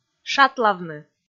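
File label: "шатлавны", Komi, female; kpv audio dict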